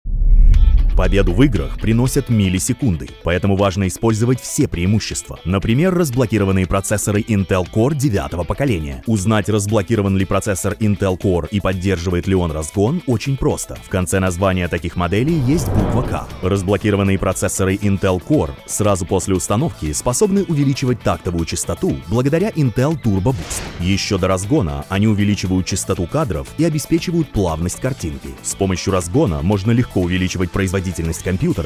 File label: Russian Voice Over; Intel Core i7